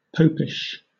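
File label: "popish", British English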